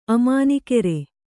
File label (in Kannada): "ಅಮಾನಿಕೆರೆ", Kannada